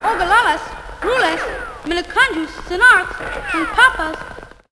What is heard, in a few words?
indian tribes, gibbrish; Voice